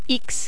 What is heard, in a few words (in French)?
"x", French